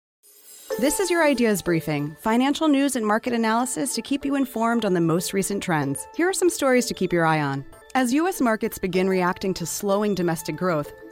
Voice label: female narration

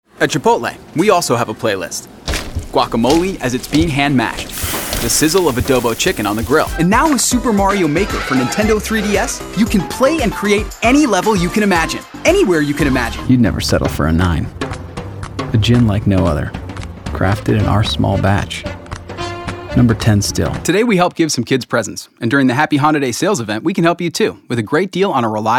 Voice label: Commercial

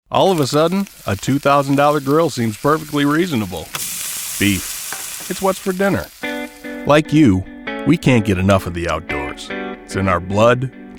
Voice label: Commercial

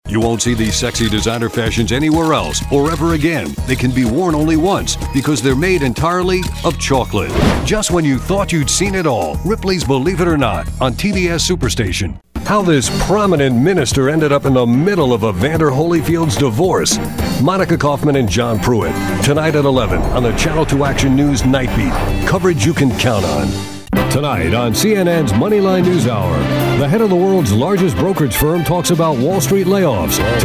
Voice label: PROMOS; Moore Talent

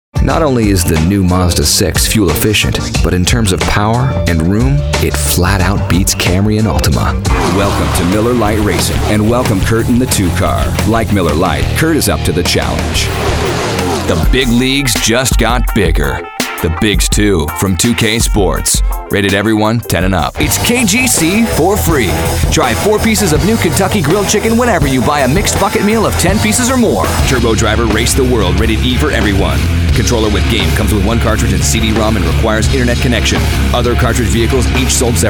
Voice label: Commercial

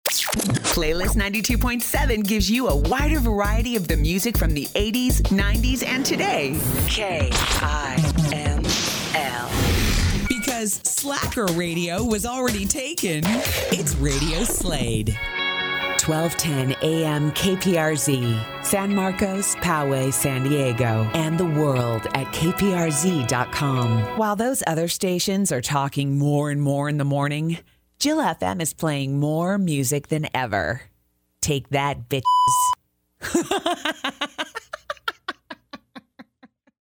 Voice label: Imaging

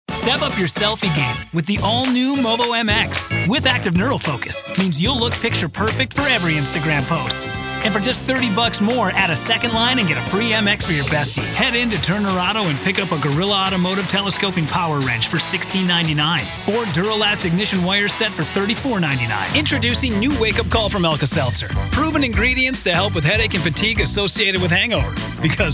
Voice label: Male, commercial

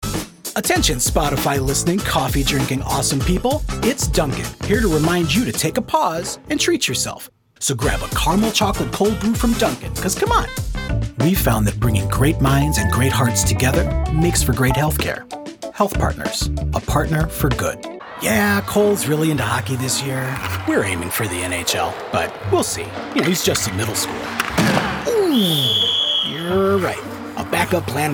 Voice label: male commercial

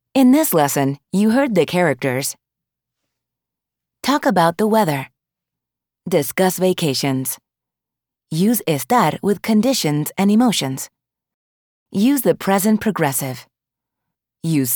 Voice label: English

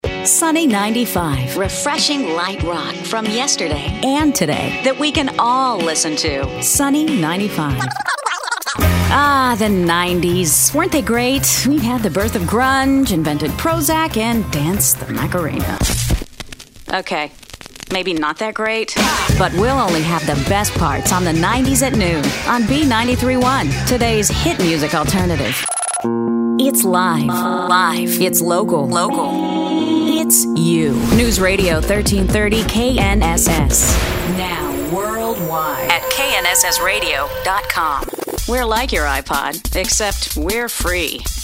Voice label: Imaging

